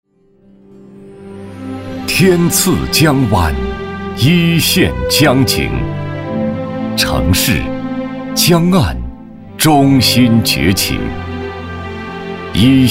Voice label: 男 地产专题

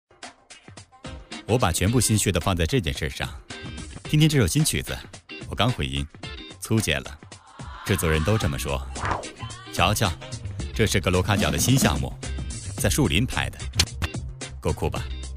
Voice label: 男89-轻松广告 电脑, 国语男声配音; 惠普电脑; 央视

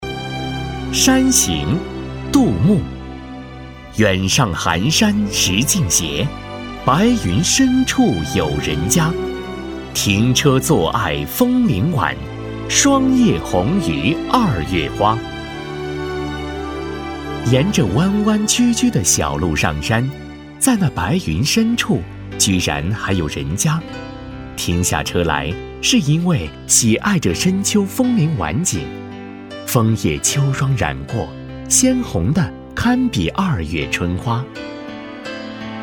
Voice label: 男 诗歌; 山行

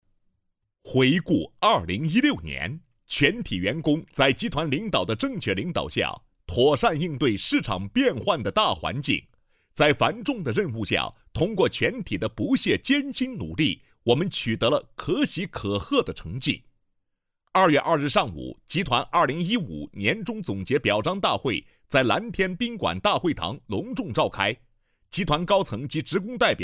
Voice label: 男, 新闻; 2016年大事记配音

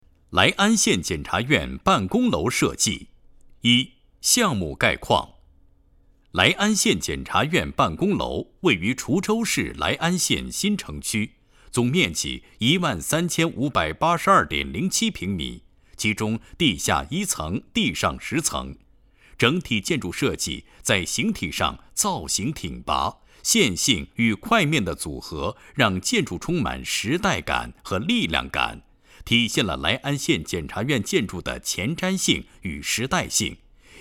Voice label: 演讲

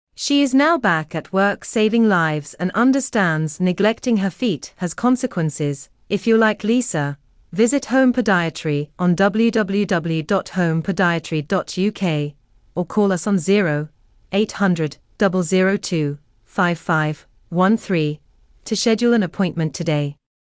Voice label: 外籍英语女, 人物介绍

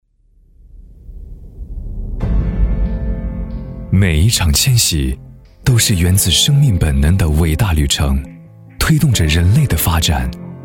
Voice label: 男 科技 时尚; 玄武科技

